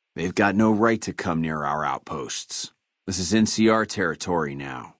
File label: male adult greeting; FNV